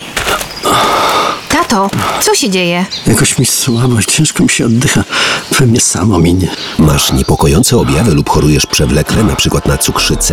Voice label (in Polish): spot radiowy; Badaj sie i lecz